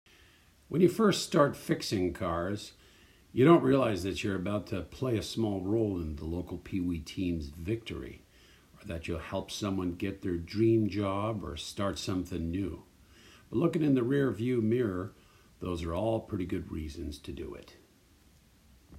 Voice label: publicite eng